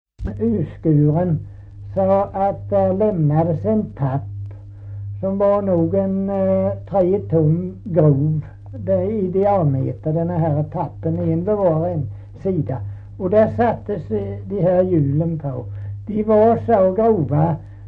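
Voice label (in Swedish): Kristianopel, Swedish dialect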